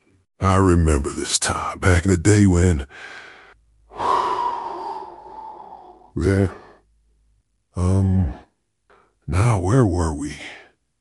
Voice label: happy; fertig classic games